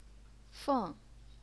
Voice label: "feng4", Chinese pinyin